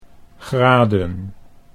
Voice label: "graden", Dutch

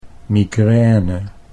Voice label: "migraine", Dutch

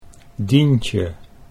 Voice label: "Dientje", Dutch

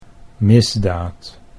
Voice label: "misdaad", Dutch

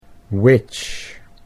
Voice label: "witch", Dutch English

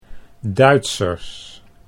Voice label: Duitsers, Dutch

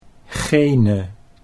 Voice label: "gene", Dutch

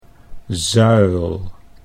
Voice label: "zuil", Dutch

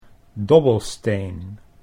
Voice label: "dobbelsteen", Dutch

